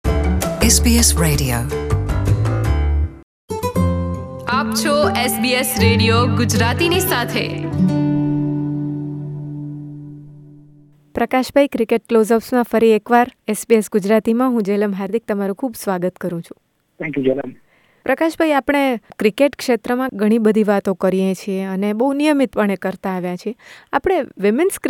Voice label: gujarati; SBS Gujarati